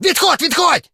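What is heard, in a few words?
otstup, Ukrainian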